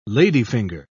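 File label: "ladyfinger", British English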